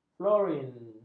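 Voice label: "florin", Malay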